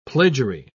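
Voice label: "plagiary", British English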